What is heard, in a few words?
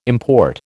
"import", American